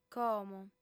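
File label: "como", female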